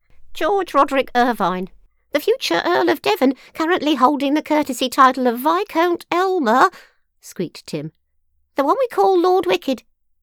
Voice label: Comedy audibook, Male character voices